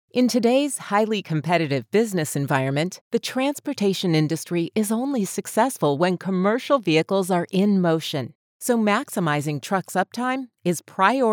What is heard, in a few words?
english us and canada female